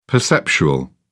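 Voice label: "perceptual", British English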